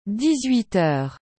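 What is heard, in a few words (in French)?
"dix huit heures", French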